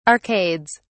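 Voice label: "arcades", British English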